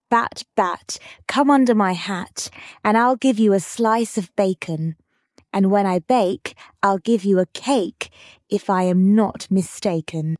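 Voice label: female British; Bat Bat Come Under My Hat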